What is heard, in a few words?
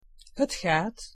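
"het gaat", Dutch; język niderlandzki podstawowe zwroty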